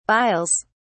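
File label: "biles", British English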